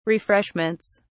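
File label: "refreshments", English, uk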